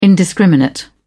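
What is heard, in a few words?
"indiscriminate", British English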